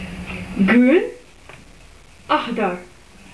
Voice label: "grun", maltesisch; farben

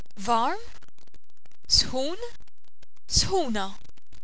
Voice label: "warm", maltesisch

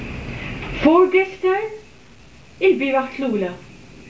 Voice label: vorgestern, Maltesisch

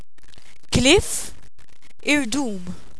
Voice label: kliff, Maltesisch; ortsbezeichnungen

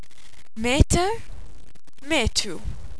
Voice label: meter, Maltesisch; masse

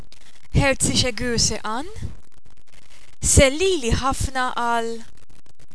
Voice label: herzliche gruesse an, Maltesisch; gruss

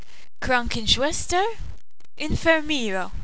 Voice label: krankenschwester, Maltesisch; berufe

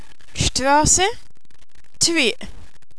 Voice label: strasse, Maltesisch; ortsbezeichnungen

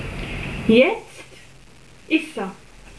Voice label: jetzt, Maltesisch

